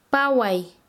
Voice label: Chanka, quechua; correr velozmente